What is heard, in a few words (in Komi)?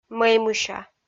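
"мӧймуся", Komi, female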